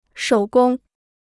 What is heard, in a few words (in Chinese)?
"手工", Chinese